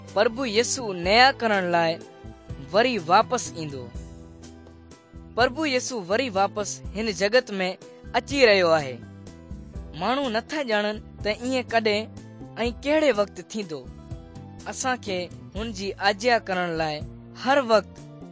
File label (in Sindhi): good news sindhi dukslinu, drama